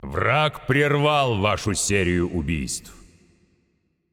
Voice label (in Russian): Announcer Russian Spree End